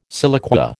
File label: "siliqua", American